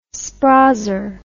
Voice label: "sprazer", English

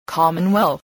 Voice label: "commonwealth", voice

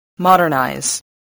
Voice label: "modernize", voice, spelling

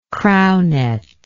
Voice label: "crownet", english

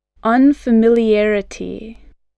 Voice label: "unfamiliarity", English spelling voice

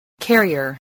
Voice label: "carrier", spelling

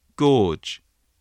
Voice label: "gorge", spelling

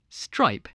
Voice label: "stripe", spelling

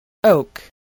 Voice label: "oak", voice, spelling

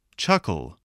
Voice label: "chuckle", English, voice

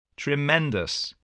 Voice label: "tremendous", voice

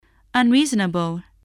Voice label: "unreasonable", English spelling voice

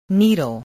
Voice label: "needle", voice

